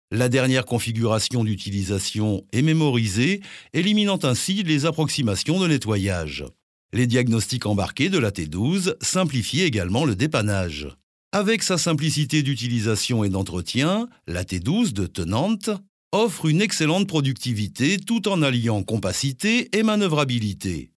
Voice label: French